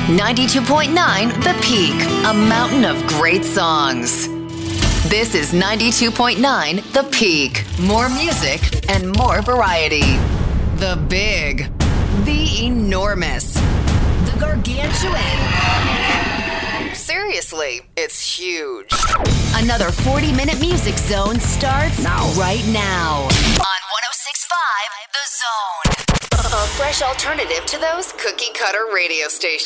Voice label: Rock Imaging VO; Demo